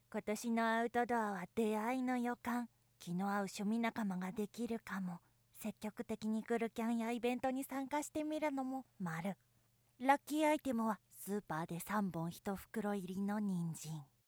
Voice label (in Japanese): outdoor